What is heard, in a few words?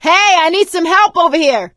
call for rescue, survivor voice; Left 4 Dead 2; producer